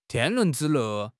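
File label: "天伦之乐", Mandarin Chinese; HSK6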